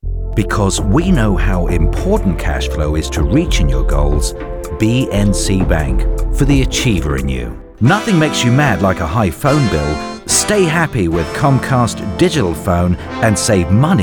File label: Commercial, Male voices